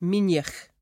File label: "meenyach" [mEEN-yee-ach]; Word; Food